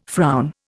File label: "frown", Indian